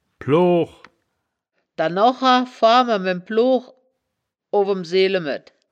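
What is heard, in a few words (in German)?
"plooch", wittlicher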